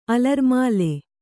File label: "ಅಲರ್ಮಾಲೆ", Kannada